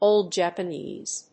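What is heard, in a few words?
"old Japanese", English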